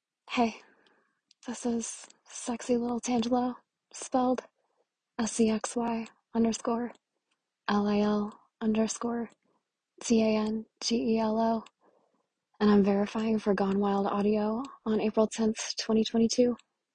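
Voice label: Female verification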